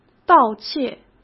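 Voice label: "盗窃", Mandarin Chinese; hsk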